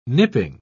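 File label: "nipping", British English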